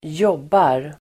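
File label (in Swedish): "jobbar", Swedish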